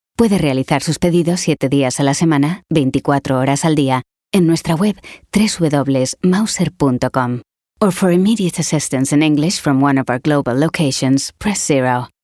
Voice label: english ivr; Europäische spanische Sprecherin, die fließend Englisch spricht

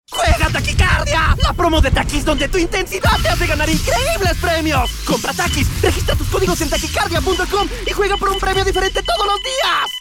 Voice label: spanish latin american animation

